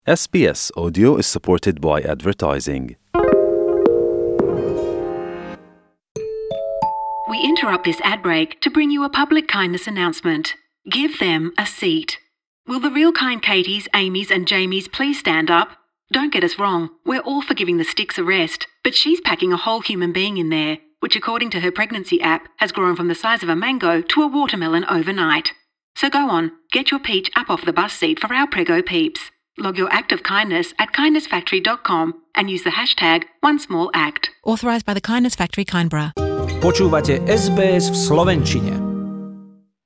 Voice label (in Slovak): slovak; sbs